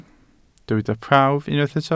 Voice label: "prawf", Welsh

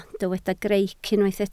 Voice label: "greic", Welsh

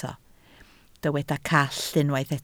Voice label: "call", Welsh

